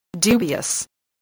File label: "dubious", English